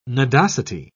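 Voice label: "nodosity", British English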